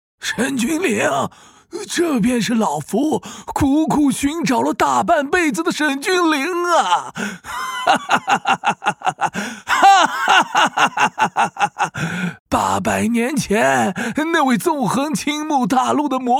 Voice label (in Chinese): 角色老年人音